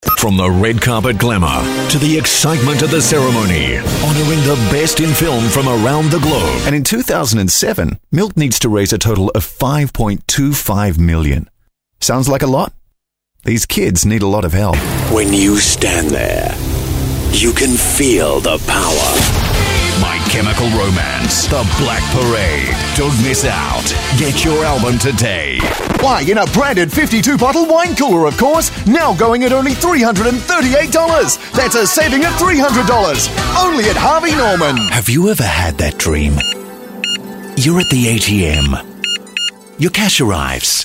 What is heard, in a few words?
Commercial Promo Australian